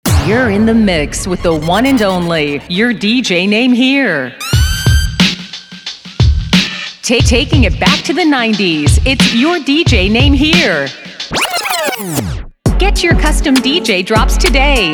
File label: Custom Female Voice Tags DJ Drops American Accent